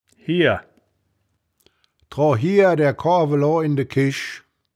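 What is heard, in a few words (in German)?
"hiehr", wittlicher woerterbuch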